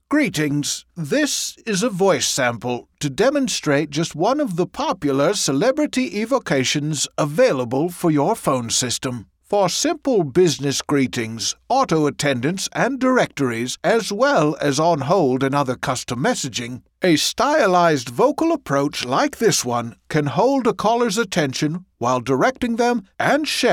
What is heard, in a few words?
Phone System Sample; Attenborough